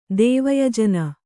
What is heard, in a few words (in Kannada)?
"ದೇವಯಜನ", Kannada